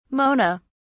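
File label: "mona", British English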